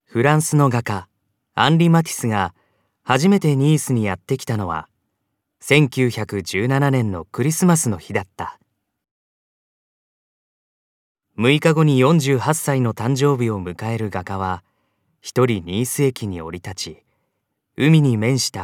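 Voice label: program narration, Japanese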